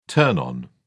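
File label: "turn-on", British English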